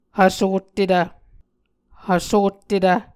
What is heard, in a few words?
"hvsottetv", Muscogee